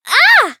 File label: Voice damaged